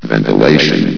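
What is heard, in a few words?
"ventillation", male synthetic announcer voice; source engine; hl1; video games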